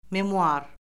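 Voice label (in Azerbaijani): "memuar", Azerbaijani; Orfoqrafiya Lüğəti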